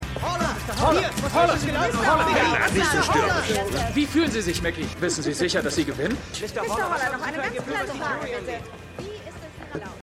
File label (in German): Reporter Menge; The Lincoln Lawyer